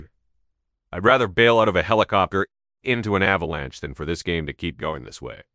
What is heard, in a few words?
upset, speech; fertig classic games